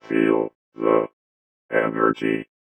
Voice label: VOICEFX ELCTR 140; Techno2

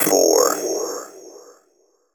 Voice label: techno male 140; Vocals

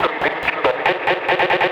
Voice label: TECHNO VOCALS 140; Techno2

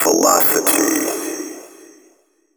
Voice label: techno male 140; Vocals

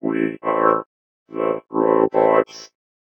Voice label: VOICEFX ELCTR 140; Techno2

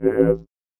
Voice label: VOICEFX ELCTR 140; Techno2

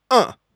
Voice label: TECHNO VOCALS 140; Techno2

